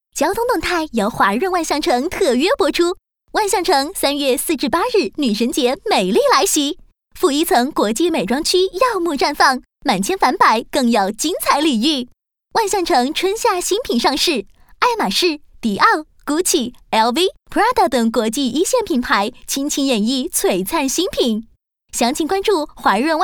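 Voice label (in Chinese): 女国大音-活力激情; 华润万象城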